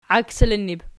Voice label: "iaksilinnib", Archi